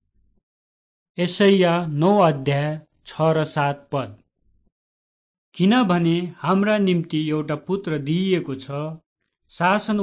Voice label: words of life nepali kathmandu